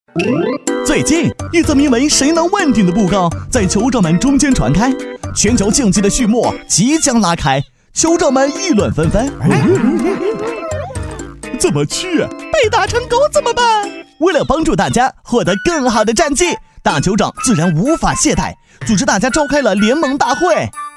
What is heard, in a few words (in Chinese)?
男国 宣传片 病毒 一个人多角色搞怪